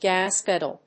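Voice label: "gas pedal", English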